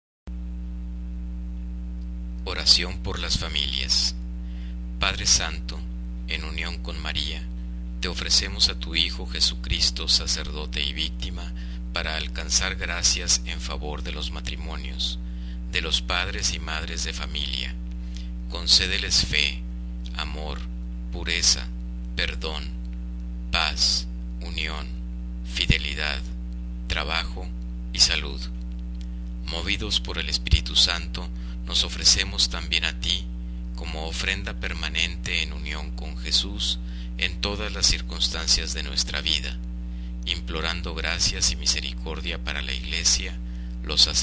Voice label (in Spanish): Voz Masc